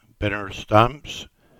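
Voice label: "Binner Stamps", English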